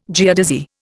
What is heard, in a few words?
"geodesy", Indian